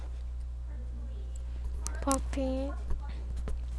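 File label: "puppy", spoken word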